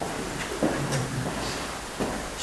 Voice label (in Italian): vocalizzo; AbbaziaVangadizza